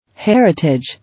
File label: "Heritage", English